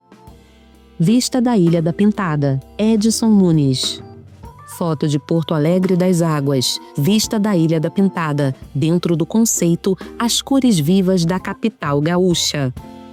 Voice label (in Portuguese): Audiodescrição; VISTA DA ILHA DA PINTADA